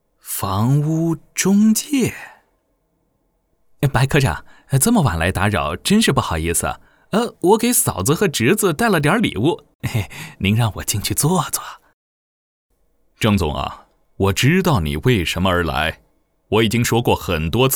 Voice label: 角色 旁白

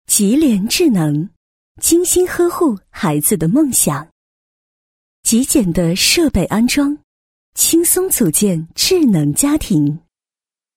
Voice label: 女 专题 科技专题

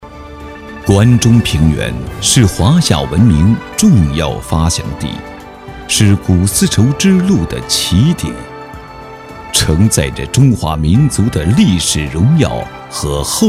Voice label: 男 宣传片 古风 城市宣传

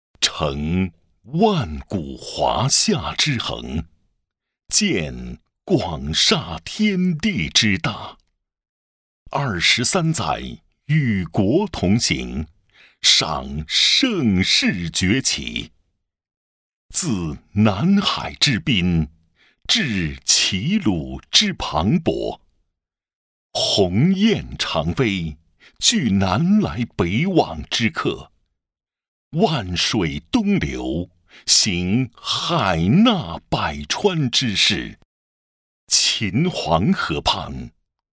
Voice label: 广告; 恒大

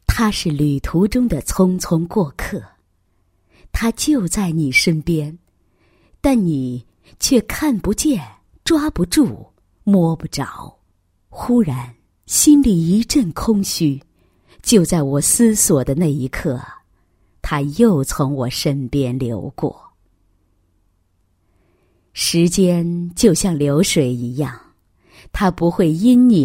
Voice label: 女 散文; 过客

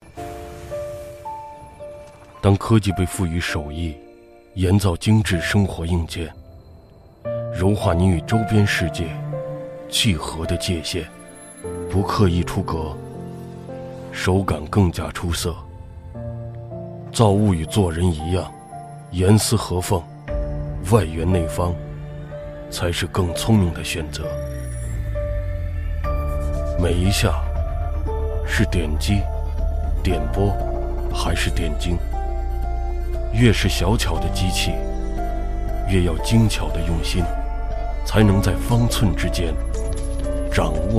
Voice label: 男 TVC 广告; 英菲克鼠标

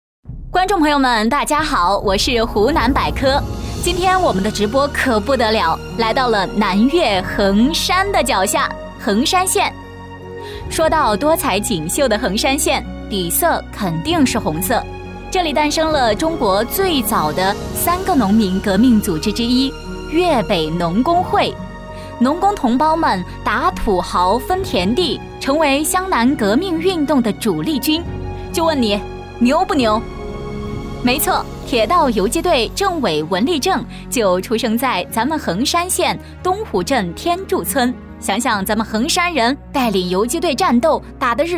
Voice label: 女 主持词 湖南百科

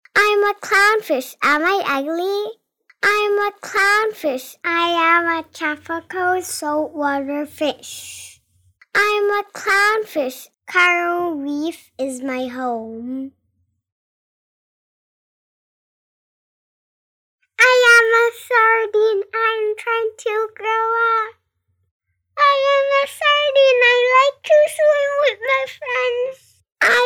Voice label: 外籍童声女 海洋鱼类 多角色模仿

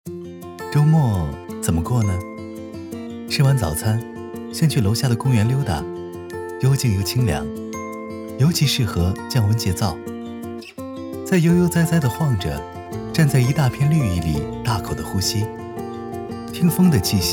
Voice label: 男 广告 露营 年轻舒适

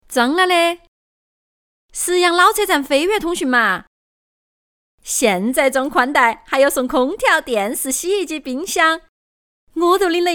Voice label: 女 川话 装了的川话

